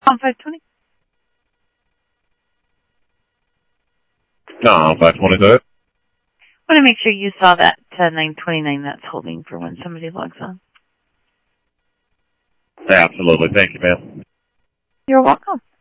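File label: Lakes Sheriff Lake Patrol, scanner audio trunk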